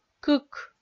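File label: "кык", Komi-Zyrian, female; kpv audio dict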